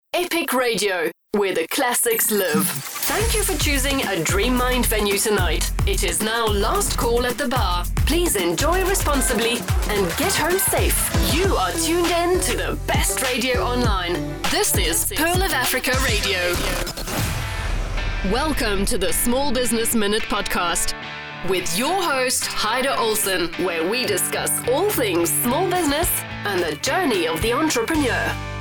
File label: English Announcer